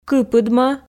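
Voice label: "кыпыдмӧ", Komi, female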